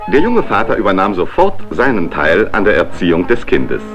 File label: narr fathers